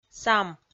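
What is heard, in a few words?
"сам", Komi, female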